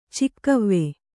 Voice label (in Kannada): "ಚೌಗಾವೆ", kannada